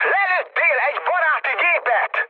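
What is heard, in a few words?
Hungarian player killed ally mood high, speech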